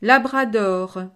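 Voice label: "labrador", French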